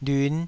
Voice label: "lyun5", Taiwanese syllable